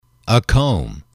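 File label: "comb", English, male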